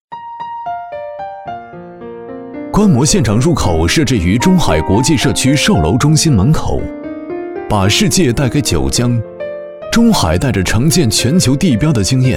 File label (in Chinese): 男 VR项目讲解 舒缓轻松; 舒缓抒情